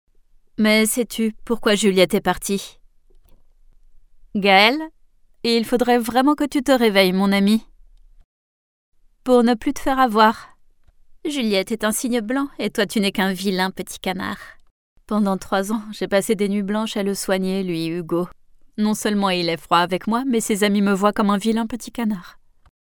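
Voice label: locutora francesa doblaje dubbing french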